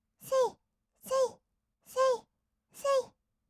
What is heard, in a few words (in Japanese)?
せいせい, kawaii voice, 合いの手; 1200 kawaii voice!!; ASMR